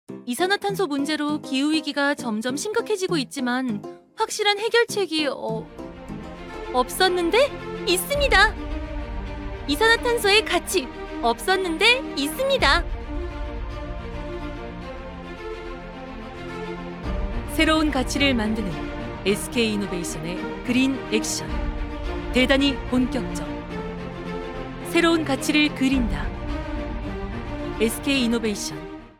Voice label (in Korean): 멘트 샘플 밝고 명랑한 앵커 톤